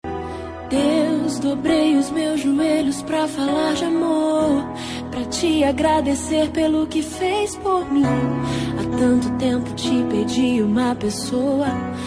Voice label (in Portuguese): dn gospel fem namorado, dia dos namorados para namorado evangelica voz feminina